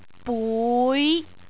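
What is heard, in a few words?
"bui5", Cantonese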